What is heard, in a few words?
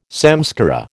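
"samskara", American English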